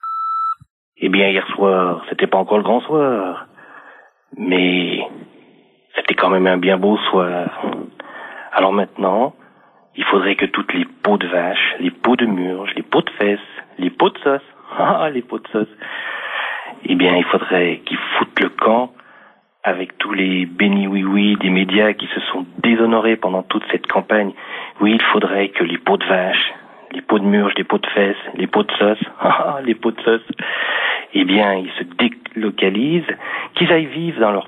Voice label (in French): repondeur; Victoire du Non